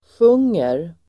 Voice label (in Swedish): "sjunger", Swedish